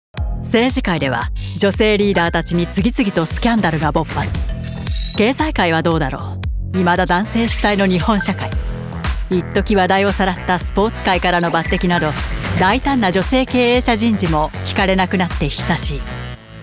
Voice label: japanese commercial; meisterhafte japanische Synchronsprecherin